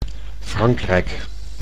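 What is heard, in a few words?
"Frankrijk", Dutch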